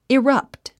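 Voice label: "erupt", English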